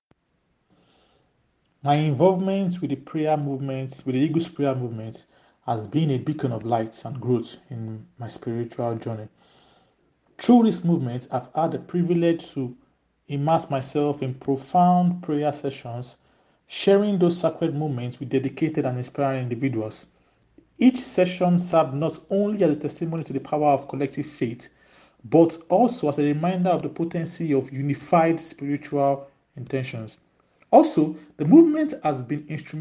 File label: Voice note